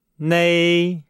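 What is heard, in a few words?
"nei", Chinese; Nihao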